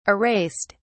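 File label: "erased", British English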